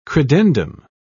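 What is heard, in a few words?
"credendum", British English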